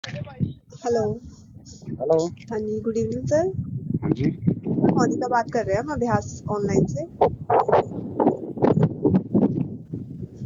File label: call recording